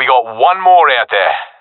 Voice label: enemies left one, professional; vo; Counter Strike Model Pack; CS:GO